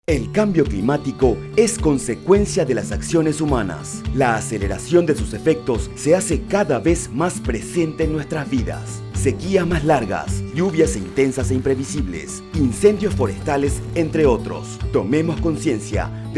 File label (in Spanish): Spot Radial; Altervida